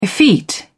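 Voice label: "effete", British English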